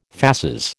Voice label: "fasces", American